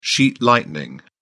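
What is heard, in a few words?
"sheet lightning", British English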